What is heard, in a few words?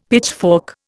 "pitchfork", Indian; Dictionary